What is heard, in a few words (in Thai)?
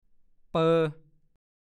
"เปลวหมู", ภาษาโคราช; เปลวมันหมูที่ไม่มีหนังติดทอดเอาน้ำมันออกแล้ว